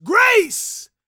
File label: preachin, gospel preacher